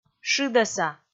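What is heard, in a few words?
"шыдӧса", Komi, female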